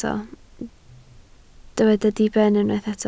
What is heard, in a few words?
"diben", Welsh